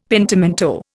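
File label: "pentimento", Indian English; Dictionary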